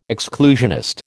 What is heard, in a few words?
"exclusionist", American